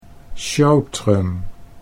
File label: "sjotrem", Dutch